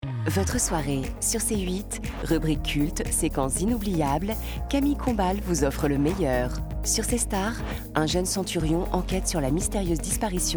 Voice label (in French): Bande annonce; Cstar